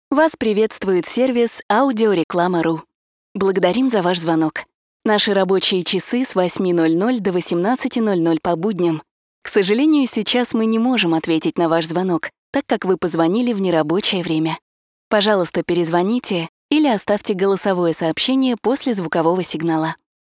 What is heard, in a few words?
IVR